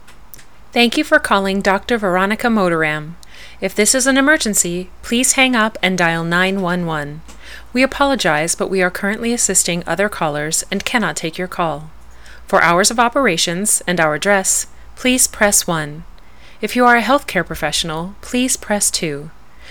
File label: Main IVR